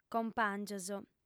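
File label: "compàngios", female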